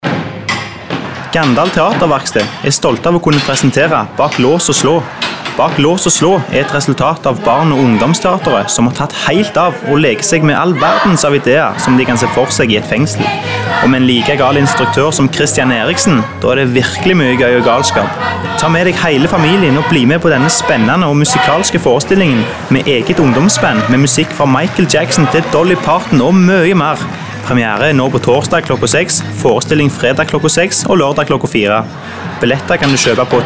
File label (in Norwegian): radio reklame; bak laas og slaa